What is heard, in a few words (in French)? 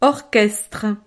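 "orchestre", French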